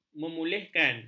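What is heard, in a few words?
"memulihkan", Malay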